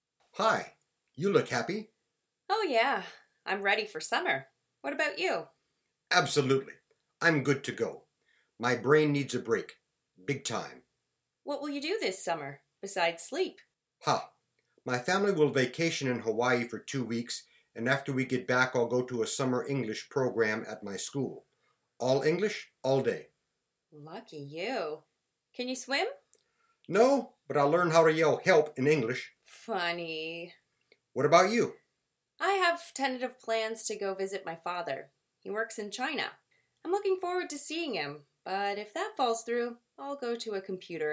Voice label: Conversation